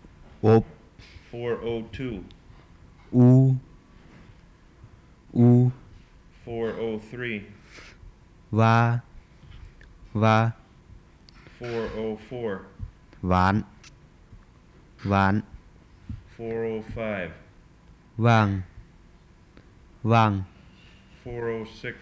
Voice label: Tai Nua Long List Comparative list; Gedney